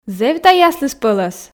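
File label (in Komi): зэв тай аслыспӧлӧс, Komi, female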